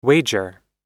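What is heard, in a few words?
"wager", American English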